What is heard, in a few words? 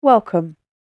"Welcome", British Female Voice; Talking Sound Effects; spoken word